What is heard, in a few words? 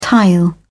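"tile", British English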